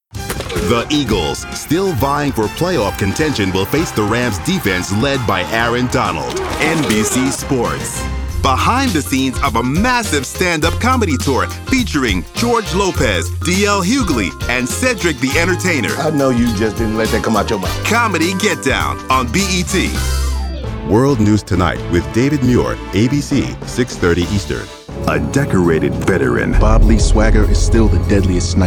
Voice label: PROMOS